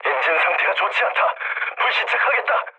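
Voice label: Korean damaged engine mood low, speech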